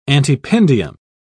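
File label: "antependium", British English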